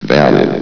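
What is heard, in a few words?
"valid", male, vox; valve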